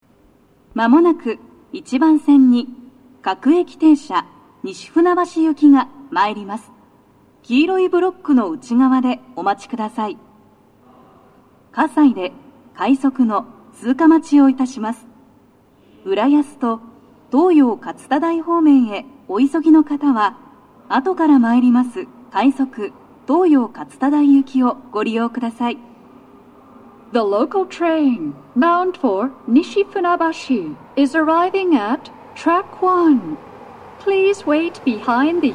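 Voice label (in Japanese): 接近放送, 女声, 両面箱型での収録です。; 放送は「各駅停車 西船橋行き」です。葛西で、快速 東葉勝田台行きの通過待ちを致します。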